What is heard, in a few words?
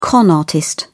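"con artist", English, British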